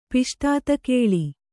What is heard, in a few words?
"ಪಿಷ್ಟಾತಕೇಳಿ", Kannada